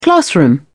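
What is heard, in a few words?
"classroom", English, uk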